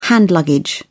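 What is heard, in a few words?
"hand luggage", British English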